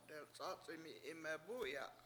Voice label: "dewc7Ac7imi7 Ime7 bU7ya" rain gives greens water, Washo